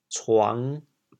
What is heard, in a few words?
"cuang5", chaoyang